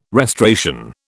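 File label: "restoration", British English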